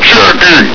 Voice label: "checking!", combine soldier; hl2